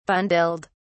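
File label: "bundled", British English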